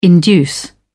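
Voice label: "induce", British English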